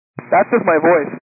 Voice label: 122 725MHz, scanner radio